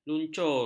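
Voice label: "luncur", Malay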